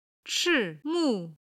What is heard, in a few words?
"chi4 mu4", Chinese